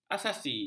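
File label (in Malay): "asasi", Malay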